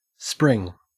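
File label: "spring", Australian English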